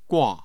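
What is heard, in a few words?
"gua", Mandarin Chinese, tone 4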